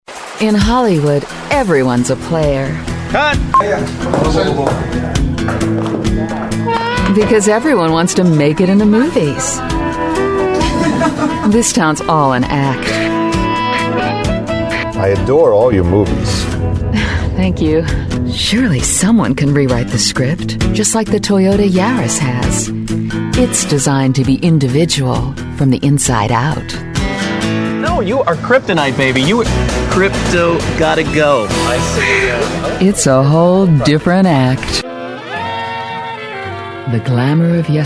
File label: commercials